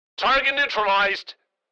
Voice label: Military radio voice; target neutralized